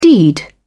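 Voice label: "deed", British English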